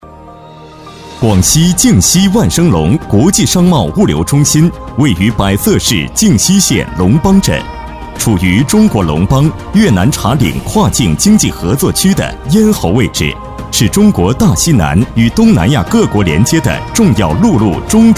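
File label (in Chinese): 宣传, 男; 万盛隆国际商贸城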